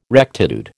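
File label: "rectitude", American English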